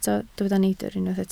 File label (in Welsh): "neidr", Welsh